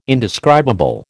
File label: "indescribable", American